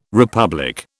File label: "republic", British